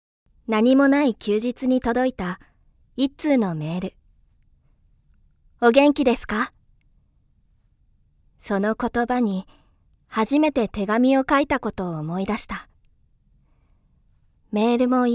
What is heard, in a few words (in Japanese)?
WEB CM(女性 穏やか)